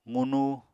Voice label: "qunu", Apatani